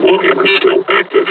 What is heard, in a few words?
injured; combine charger; Half Life Alyx